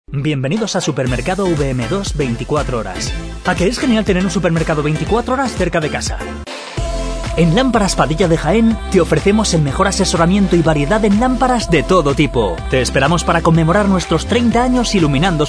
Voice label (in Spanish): HOMBRE